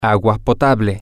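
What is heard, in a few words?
Spanish, male; drinkable Water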